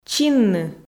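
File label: "чинны", Komi, female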